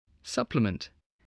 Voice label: "supplement", English